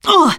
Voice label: shoved, teen girl; player; survivor; voice